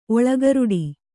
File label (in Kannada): "ಒಳಗರುಡಿ", Kannada